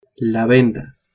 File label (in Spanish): "venda", Spanish